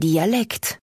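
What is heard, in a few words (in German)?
"Dialekt", German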